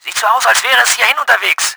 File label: ATTACKING MARSHALL, german; Battle Engine Aquila